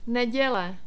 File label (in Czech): "nedele", Czech